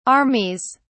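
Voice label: "armies", British English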